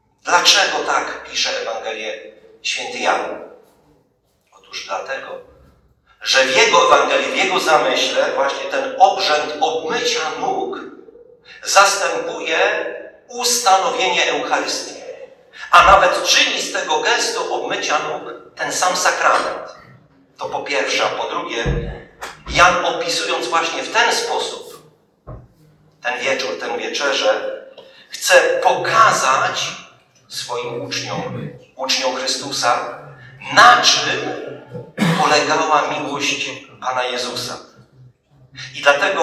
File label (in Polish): Kazanie; Wielki Czwartek